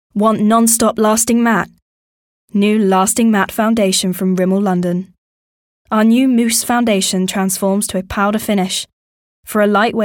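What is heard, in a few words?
English UK, commercial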